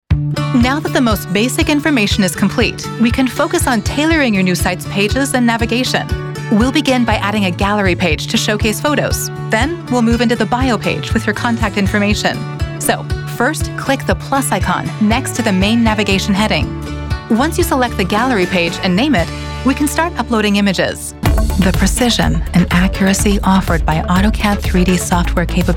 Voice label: Narration

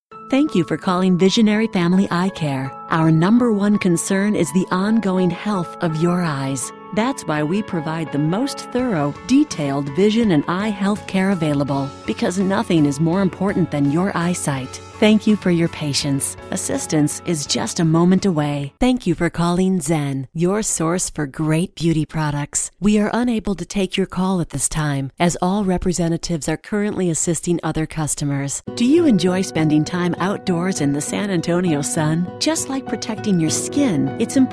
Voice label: Phone Messaging IVR

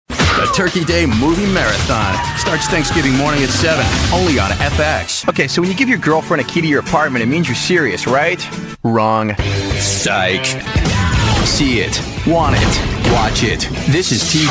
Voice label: promo

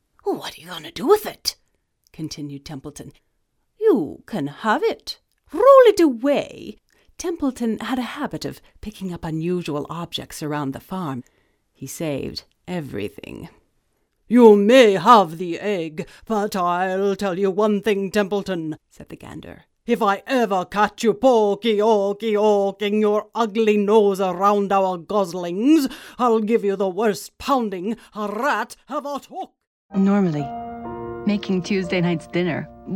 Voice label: animation

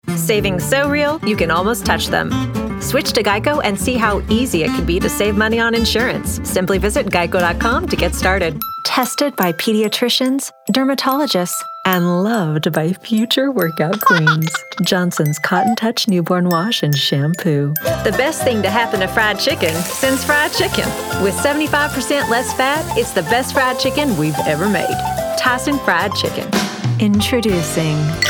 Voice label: Commercial

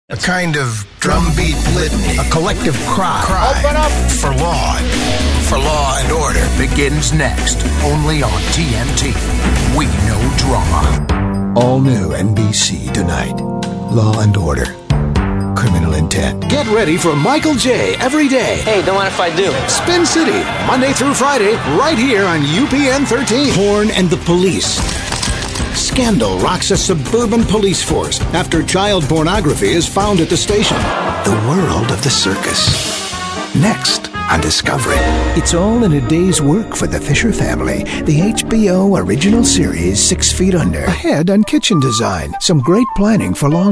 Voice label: promo

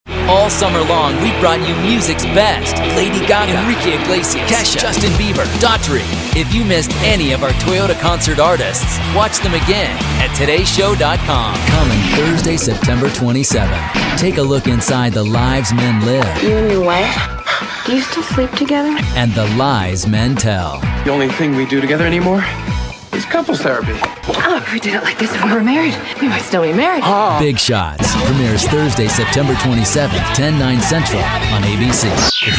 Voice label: promo

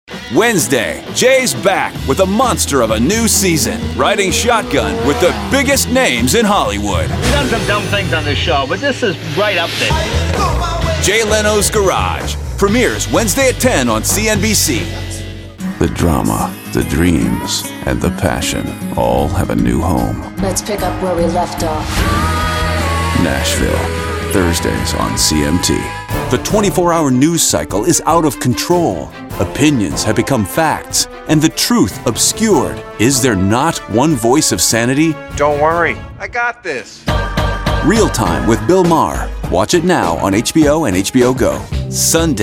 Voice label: TV PROMO

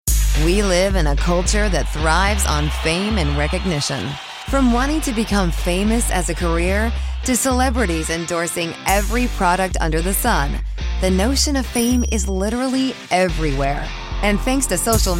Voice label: Narration

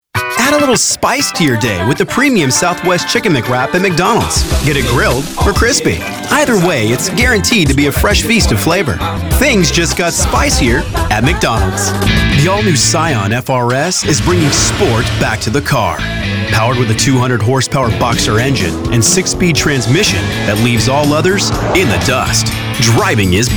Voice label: Commercial

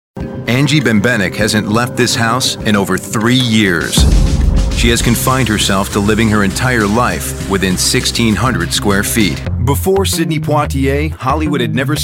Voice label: Narration

